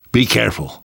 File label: warn careful, namvet, survivor voice; Left 4 Dead 2; left4dead2 dlc2